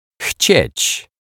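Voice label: "chciec", Polish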